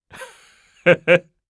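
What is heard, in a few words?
story, Japanese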